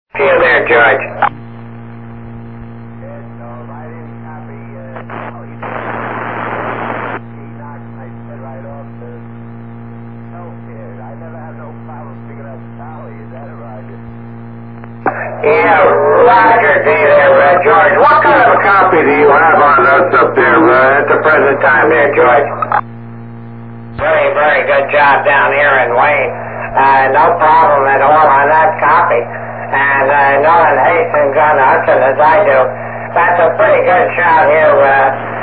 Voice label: tape ssb